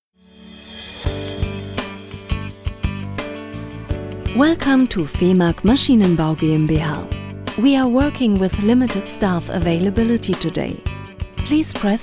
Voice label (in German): Telefonansage englisch; Vemag Maschinenbau